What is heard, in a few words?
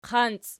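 "qanci" nominative, Archi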